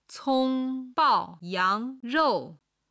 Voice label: "cong1bao4yang2rou4", chinese

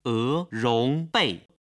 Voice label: "erongbei", Chinese

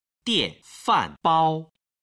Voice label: "dianfanbao", Chinese

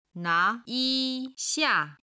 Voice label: "na2yi1xia4", Chinese

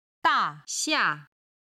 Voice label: "da4 xia4", Chinese

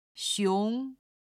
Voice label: "xiong", Chinese

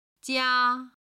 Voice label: "jia1", Chinese

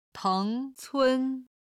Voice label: "teng cun", chinese

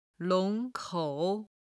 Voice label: "long2 kou3", Chinese

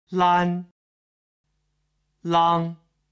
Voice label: "lan" "lang", Chinese

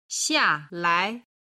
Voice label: "xialai", Chinese

